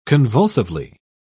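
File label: "convulsively", British English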